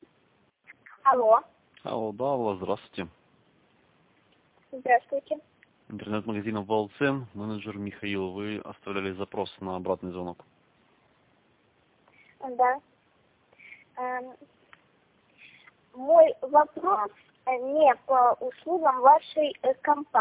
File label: girl call